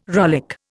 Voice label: "rollick", Indian